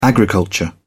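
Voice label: "agriculture", British English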